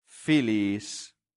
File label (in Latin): "filiis", latin; santillana